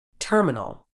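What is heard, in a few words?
"terminal", British English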